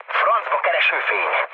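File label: Hungarian searchlite mood med, speech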